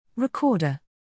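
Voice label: "recorder", English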